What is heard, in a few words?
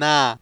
"na", consonant